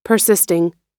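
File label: "persisting", American English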